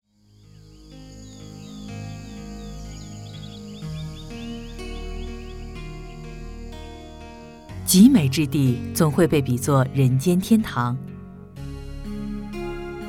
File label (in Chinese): 女 专题片 成熟知性; 人间天堂